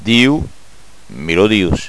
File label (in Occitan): "diu", Gascon